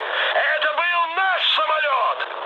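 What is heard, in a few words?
player killed ally, mood high, Russian; War Thunder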